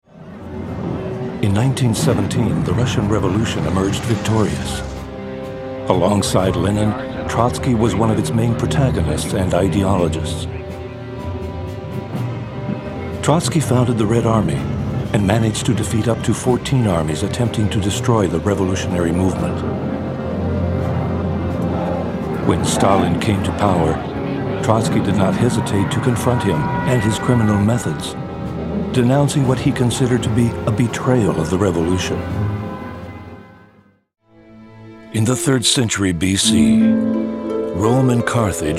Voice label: Narration VO